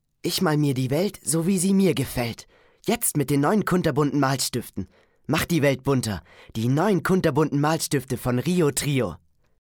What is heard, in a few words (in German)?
Spot; Rio Trio; engelszungen